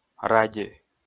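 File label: "ради", Komi, male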